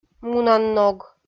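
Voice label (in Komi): "мунанног", Komi, female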